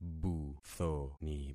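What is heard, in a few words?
"buthoni", concatenated syllables; Affixing experiment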